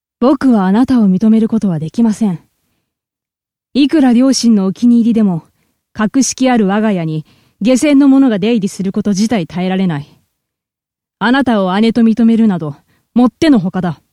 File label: seikaku f cool otoko